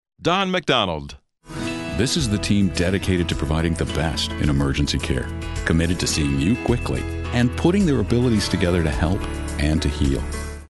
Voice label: WARM, Warm And Fuzzy

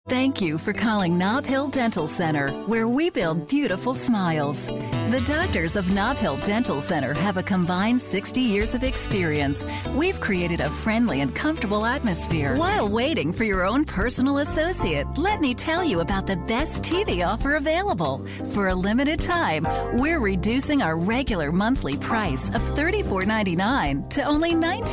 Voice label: On Hold

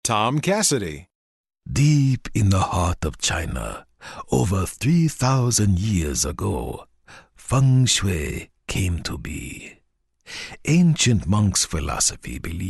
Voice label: Asian Accent

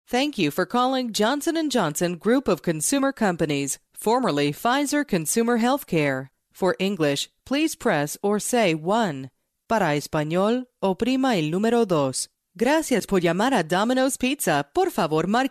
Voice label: On Hold

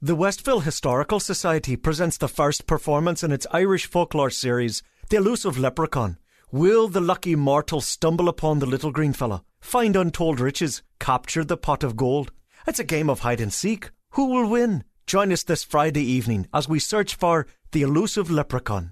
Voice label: Irish Accent

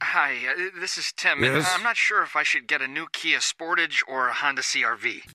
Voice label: CONFUSED

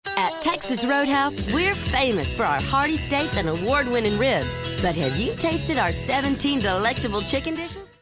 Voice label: SOUTHERN

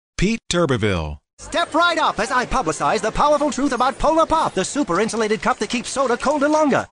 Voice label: 50s announcer

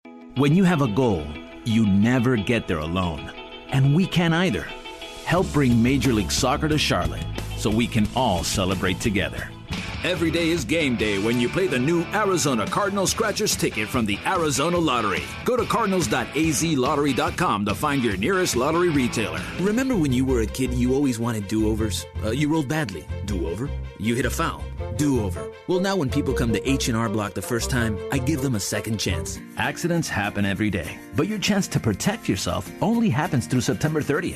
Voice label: SP Accent

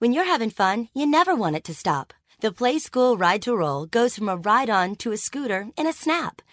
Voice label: WARM

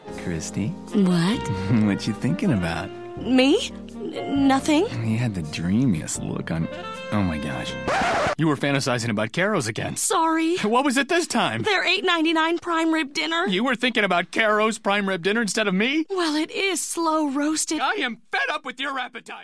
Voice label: UPSET

